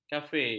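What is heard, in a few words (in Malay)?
"kafe", Malay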